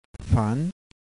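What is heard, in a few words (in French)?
"thoung", ton montant, thailandaise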